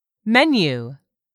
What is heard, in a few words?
"MENU", english; 3000 words